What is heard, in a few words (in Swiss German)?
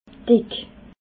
"dick", alsace